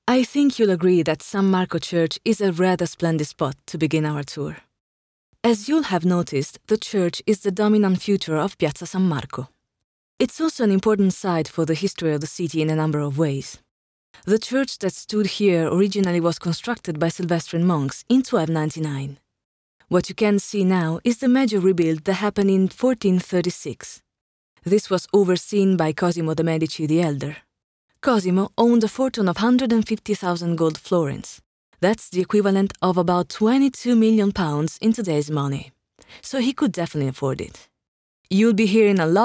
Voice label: English documentary